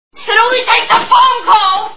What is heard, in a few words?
phone call; Fatal Attraction